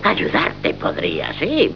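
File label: "ayudarte", Spanish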